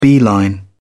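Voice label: "beeline", English, British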